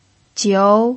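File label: "jiu" second tone, pinyin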